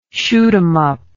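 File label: "shoot-out", English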